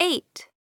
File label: English Number